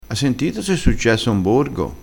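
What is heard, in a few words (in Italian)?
"meglio palaia", dialettale crespinese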